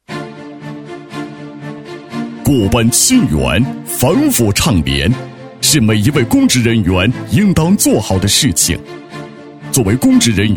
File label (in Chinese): 男 戒毒所 大气严肃